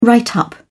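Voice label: "write up", English, British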